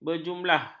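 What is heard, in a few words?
"berjumlah", Malay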